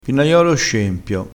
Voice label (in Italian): "pinaiolo scempio", crespinese